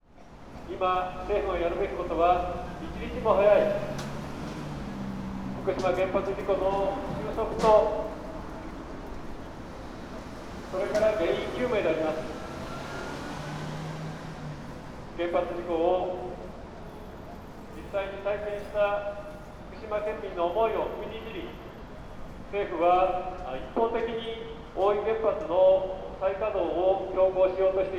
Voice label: signature collecting campaign, Fukushima; ekimae